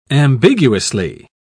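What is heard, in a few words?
"ambiguously", English, British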